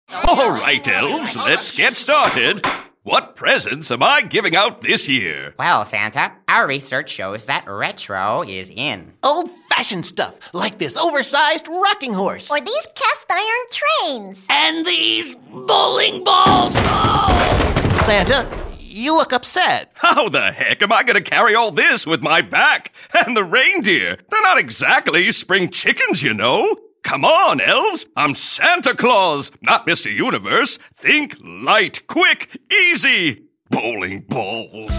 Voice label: commercial; NJ Lotto